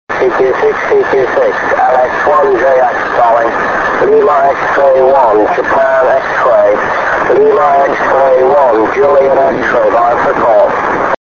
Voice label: 6m ssb